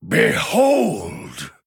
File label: Male Human Wow; en